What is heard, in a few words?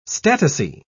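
"statice", British English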